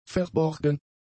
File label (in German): "verborgen", German; adjectives